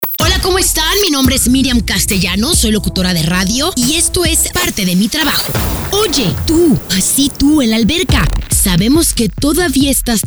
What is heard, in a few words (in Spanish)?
spots